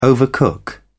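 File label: "overcook", British English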